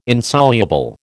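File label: "Insoluble", American English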